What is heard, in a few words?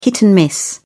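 "hit and miss", British English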